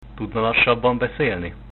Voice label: slowly, hungarian

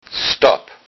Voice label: stop Low Saxon

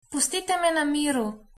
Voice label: leave me alone, slovenian

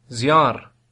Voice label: "fire", Albanian

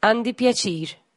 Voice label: meet, Maltese

